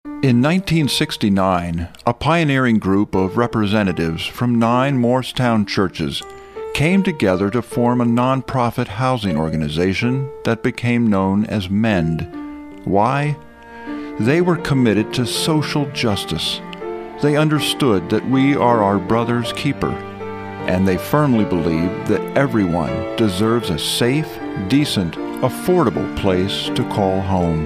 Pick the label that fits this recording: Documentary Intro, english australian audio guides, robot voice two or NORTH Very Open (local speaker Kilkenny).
Documentary Intro